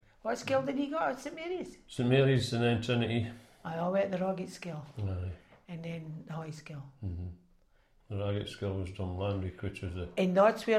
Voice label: hawick older, dialects